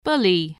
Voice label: "bully", spelling